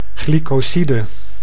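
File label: "glycoside", Dutch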